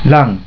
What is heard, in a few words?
"Lang", German